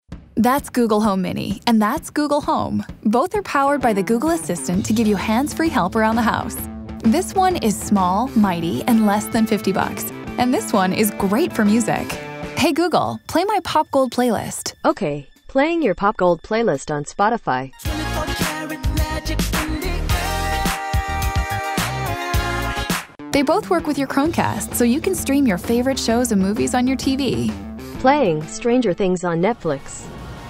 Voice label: english canadian explainer videos

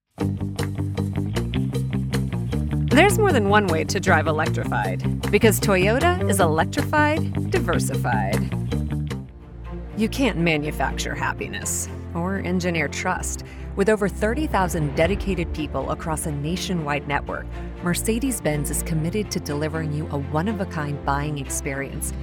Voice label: english american automotive

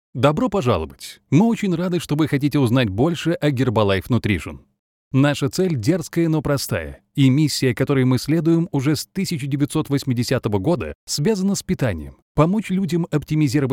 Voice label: Russian e-learning

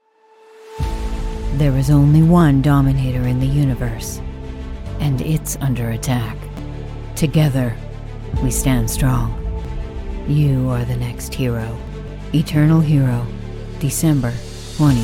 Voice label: English Canadian movie trailers

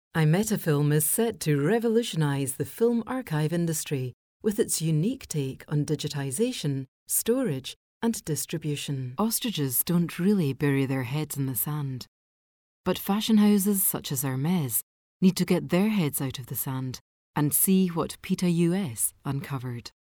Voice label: english scottish documentaries

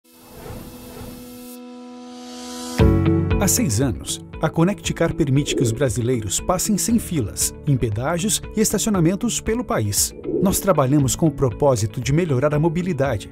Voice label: portuguese brazilian corporate videos

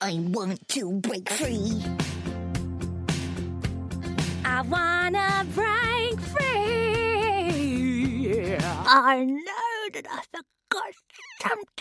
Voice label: english british animation

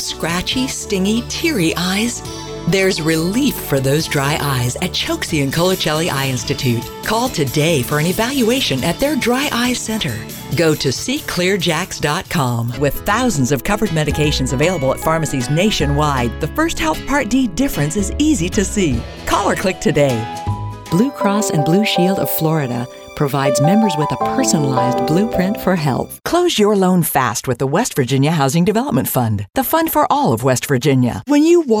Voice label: english american narration

